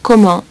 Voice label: "comment", French; French Pronunciation 1.2